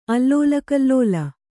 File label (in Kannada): "ಅಲ್ಲೋಲಕಲ್ಲೋಲ", kannada